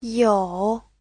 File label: "you3", Chinese